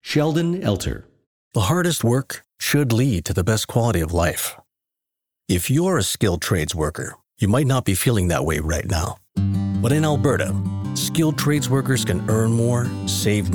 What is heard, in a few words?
Commercial